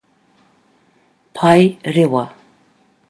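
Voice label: "pae rewa", Māori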